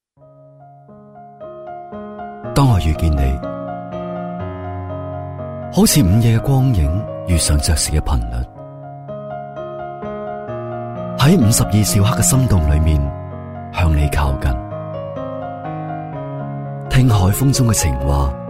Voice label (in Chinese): 男粤 其他 微电影, 粤语; 当我遇见你